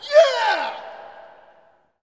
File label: Hockey Male "Yeah"; SFX Kit; Hockey Vocal Sounds